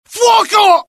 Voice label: alert, dialogue IT; mohaa; attack